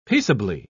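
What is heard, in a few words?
"peaceably", British English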